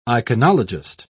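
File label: "iconologist", British English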